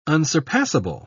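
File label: "unsurpassable", British English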